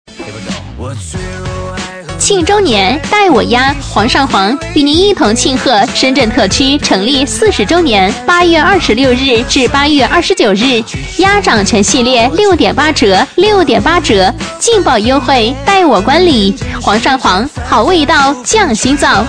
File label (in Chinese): 女47号促销; 煌上煌